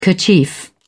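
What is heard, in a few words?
"kerchief", British English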